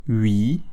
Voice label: [ɥi], French

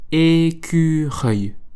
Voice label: "Écureuil", French

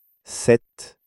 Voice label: "cette", French

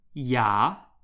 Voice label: "ya", French

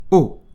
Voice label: French alphabet o

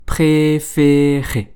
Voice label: "Préférer", French

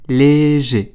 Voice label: "Léger", French

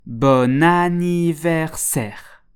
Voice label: "bon anniversaire", French

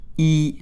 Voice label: French alphabet i

